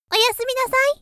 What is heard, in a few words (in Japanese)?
お休みなさい, 元気な少女